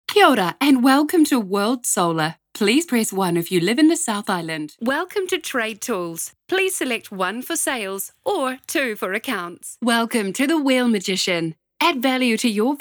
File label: NZ Female Voice Talent Phone